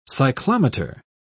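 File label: "cyclometer", British English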